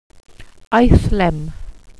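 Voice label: "aethlem", welsh pronunciations; Wales/welsh names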